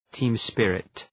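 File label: "team spirit", English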